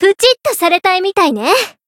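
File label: voice encounter Japanese; flammelapis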